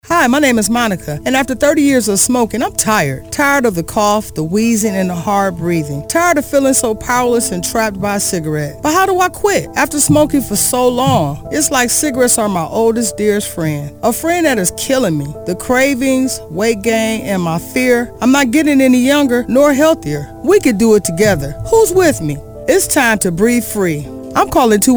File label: spot